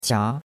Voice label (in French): "qia2", chinois; Cours de Chinois